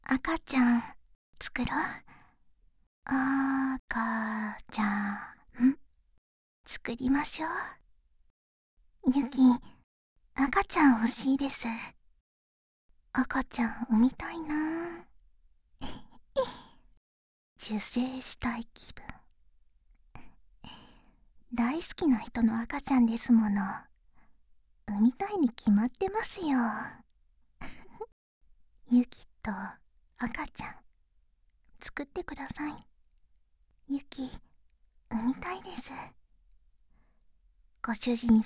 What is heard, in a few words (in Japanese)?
赤ちゃん作ろ♪ はにかみ; 夕貴